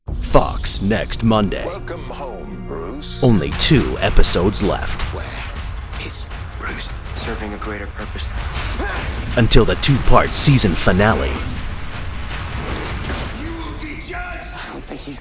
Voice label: TV Show Promo